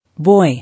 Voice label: "Boy", English